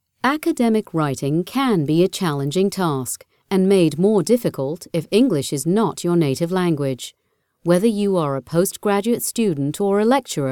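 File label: e-learning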